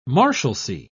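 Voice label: "marshalcy", British English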